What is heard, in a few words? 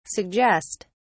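"suggest", British English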